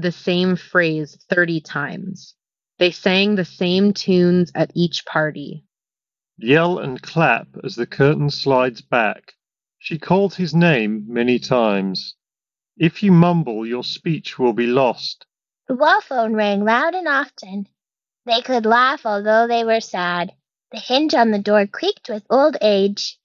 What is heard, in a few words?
Ideal microphone sample; CMF Buds by Nothing